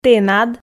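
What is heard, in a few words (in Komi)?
"тэнад", Komi, female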